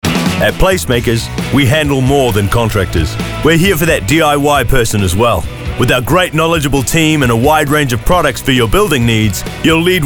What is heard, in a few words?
Voice Actor; BigMouthVoices